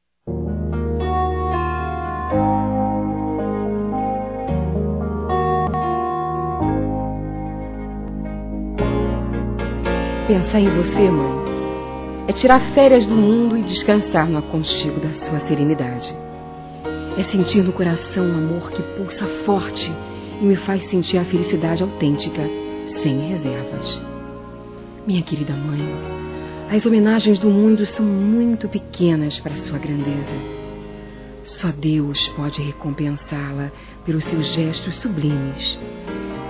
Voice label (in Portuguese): HOMENAGEM A MÃE F; Telemensagens 2017